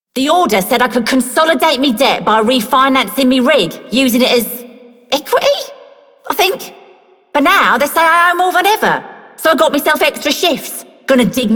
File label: Female Worker, Female One, en; Solaris Venus